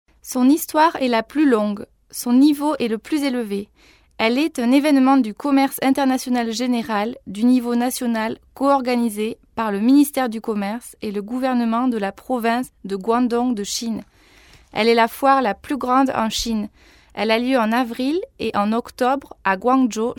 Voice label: french girl